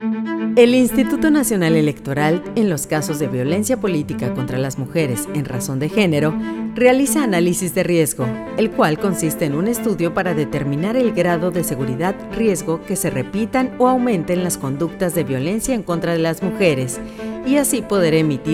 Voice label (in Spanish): ESPANOL